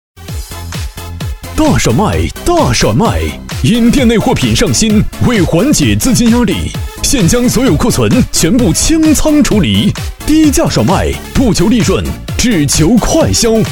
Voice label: 男 店铺促销 激情广告; 厚重有力; 激情力度

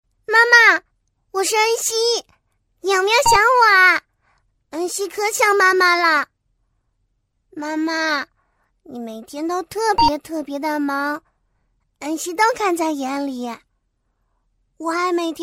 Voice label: 女 女童5-7岁; 可爱

